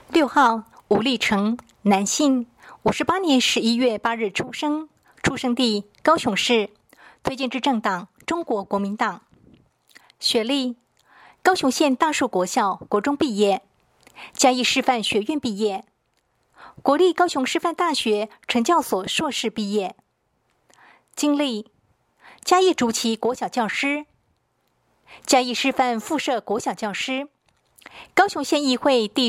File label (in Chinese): 國語, 有聲選舉公報; 第五選區